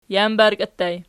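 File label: "jambarkii" ergative plural, Archi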